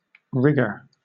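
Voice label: "rigger", British English; pronunciations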